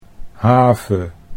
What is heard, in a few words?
"have", Dutch